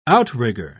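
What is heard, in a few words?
"outrigger", British English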